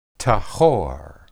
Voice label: "tahor", Hebrew